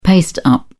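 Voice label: "paste-up", British English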